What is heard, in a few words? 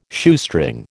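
"Shoestring", American English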